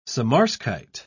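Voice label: "samarskite", British English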